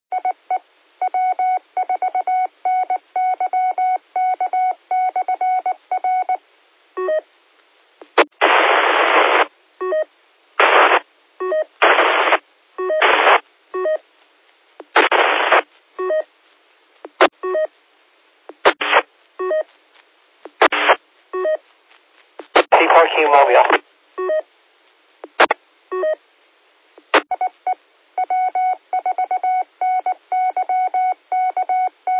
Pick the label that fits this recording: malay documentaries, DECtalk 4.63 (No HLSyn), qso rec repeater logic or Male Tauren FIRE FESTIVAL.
qso rec repeater logic